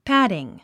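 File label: "padding", English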